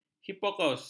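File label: "hipokaus", Malay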